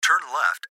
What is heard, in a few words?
Gps Voice, Male; Turn Left; Laptop Speaker Adult Male; Recorded Voice